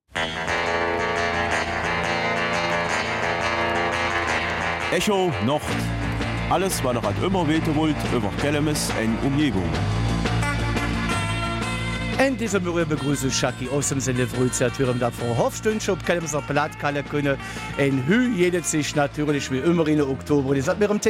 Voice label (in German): Kelmiser Mundart; Theaterstück Stress noh et Oktoberfest